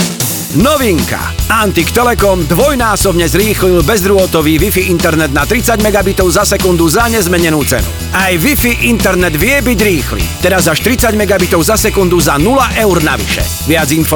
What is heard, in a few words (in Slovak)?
dynamicky